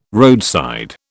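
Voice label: "roadside", British English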